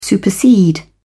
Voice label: "supersede", en uk